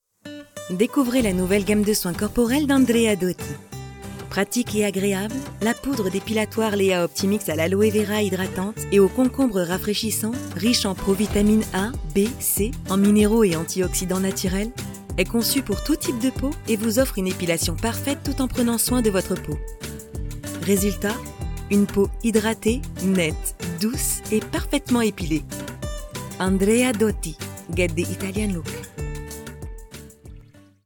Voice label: French Voice Over